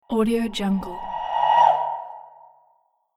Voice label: haunted cartoon voice